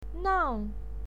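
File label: "nang" fourth tone, pinyin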